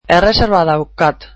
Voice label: I have a reservation, basque